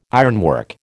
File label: "ironwork", American